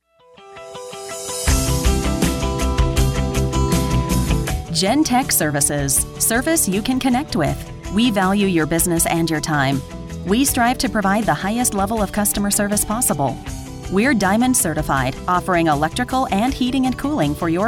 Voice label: ivr greeting